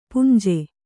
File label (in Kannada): "ಪುಂಜೆ", Kannada